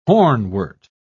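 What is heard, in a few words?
"hornwort", British English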